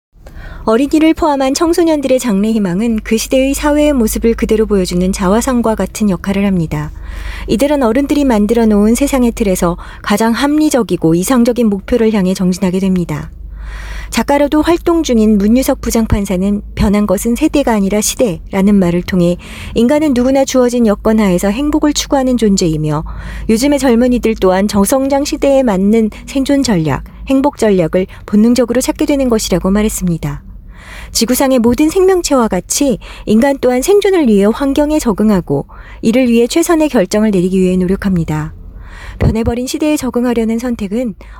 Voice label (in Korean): Korean Voice; Female